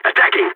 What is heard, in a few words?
in combat, balkan; vo